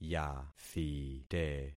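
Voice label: "yathite", concatenated syllables; Affixing experiment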